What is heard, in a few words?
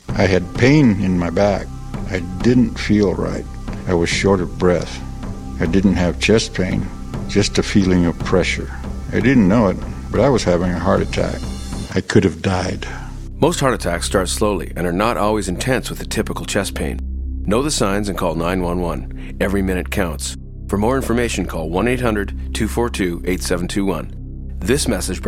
Radio Ad Men; publichealth; Cardiovascular